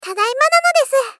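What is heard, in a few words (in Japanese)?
Moe Return Tadaima nanodesu, moe loli girl; Rei Yumesaki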